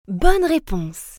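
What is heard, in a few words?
"bonne reponse", French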